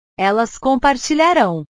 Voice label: "elas compartilharao", portugues; portugues/verbos

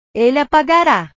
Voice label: "ele apagara", portugues

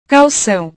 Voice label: "calcao", portugues

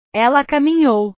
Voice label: "ela caminhou", portugues

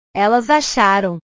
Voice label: "elas acharam", portugues; verbos

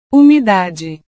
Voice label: "umidade", portugues

